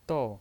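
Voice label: "dou" Mandarin Chinese, fourth tone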